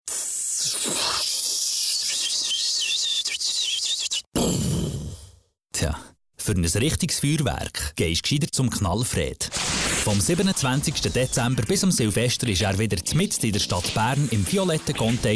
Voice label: SPOT; KNALLFRED GMBH